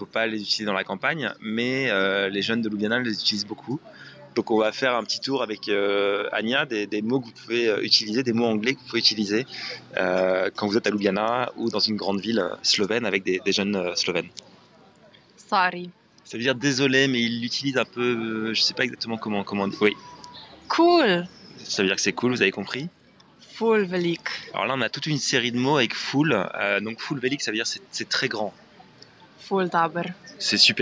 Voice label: conversation